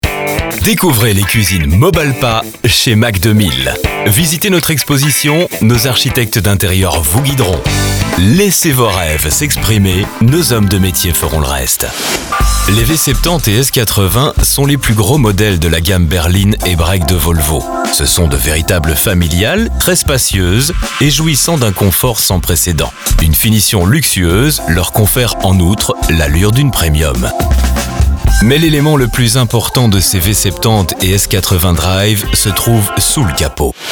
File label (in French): Voix francophone